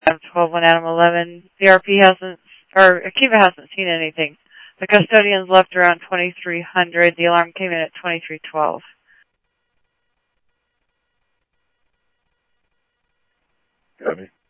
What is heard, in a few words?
Mesa Simulcast MESA PD Mesa Police Dep, scanner audio; MESA PD 1 Fiesta